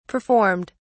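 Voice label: "performed", British English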